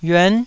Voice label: "yuan9", Taiwanese